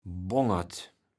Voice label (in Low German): "Bongert", kaevels platt